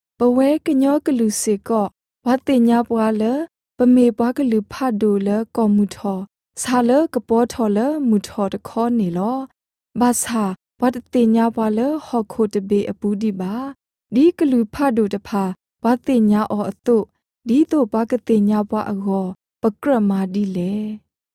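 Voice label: Burmese Karen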